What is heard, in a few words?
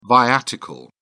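"viatical", British English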